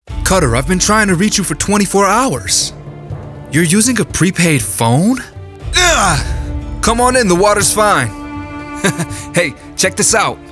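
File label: male, African American, USA accent with music; nathan drake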